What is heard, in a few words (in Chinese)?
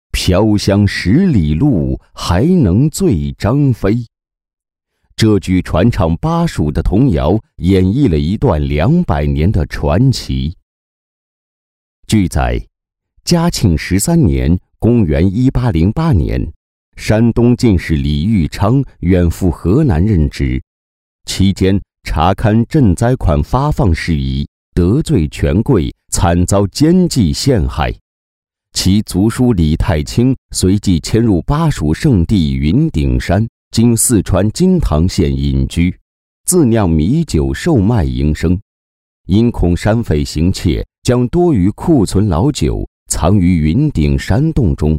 男 纪录片; 老李窖藏